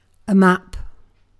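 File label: "map", British English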